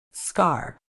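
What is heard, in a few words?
"scar", British English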